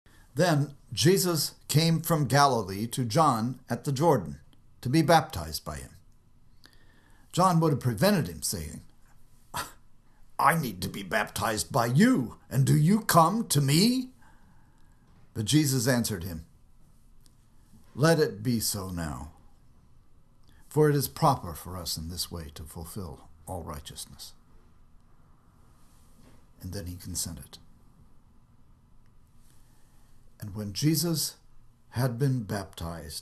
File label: storytelling